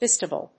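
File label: "BISTABLE", English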